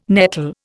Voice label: "nettle", Indian